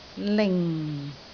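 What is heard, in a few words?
"ling4", Cantonese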